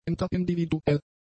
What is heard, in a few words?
"interindividuell", German; adjectives